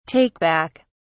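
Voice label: "take back", British English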